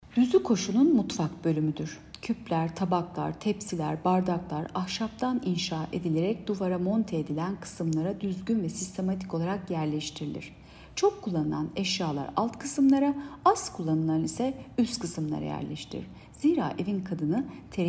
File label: turkce